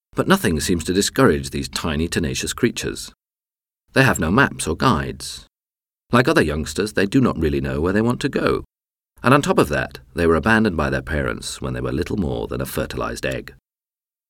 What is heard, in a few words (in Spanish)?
locutor ingles